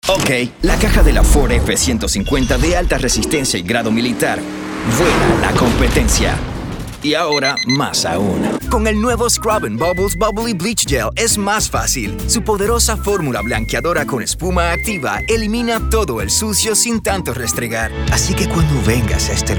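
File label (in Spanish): Commercial Spanish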